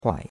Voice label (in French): "huai3", chinois; Cours-de-Chinois